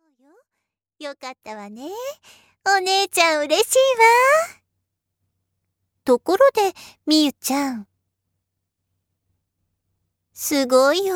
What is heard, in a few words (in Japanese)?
淡々リテイク